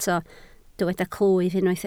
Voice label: "clwyf", Welsh